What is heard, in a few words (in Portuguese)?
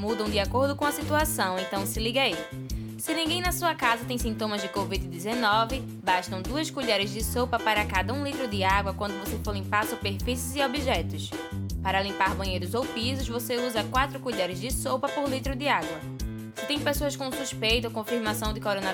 SPOT COMO FAZER SOLUCAO DE AGUA SANITARIA